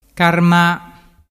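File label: "carma", Vernazzese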